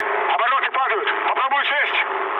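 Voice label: Russian damaged engine mood med, speech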